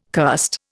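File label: "curst", Indian English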